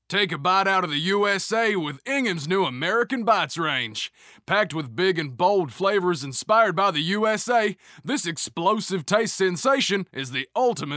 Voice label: Southern Read